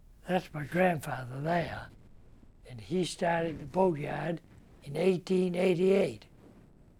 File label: Speech De Rustle Amb Preserv